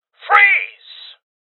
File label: Voices Freeze Male; Rebel Cops